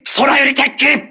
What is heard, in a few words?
voice message air alert, crew ships japanese